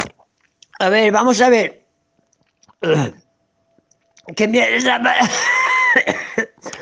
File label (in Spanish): ahogo hablando